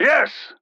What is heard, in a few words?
Emote Yes